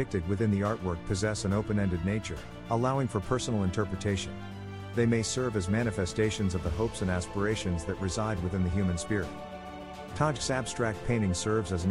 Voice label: humming night dreams description english